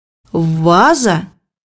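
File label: "vase", Russian; bukvar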